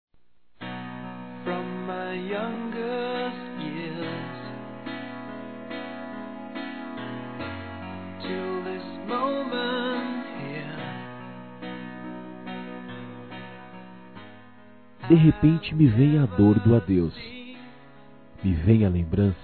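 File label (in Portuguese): RECONCILIAÇÃO Masculino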